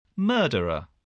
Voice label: "murderer", voice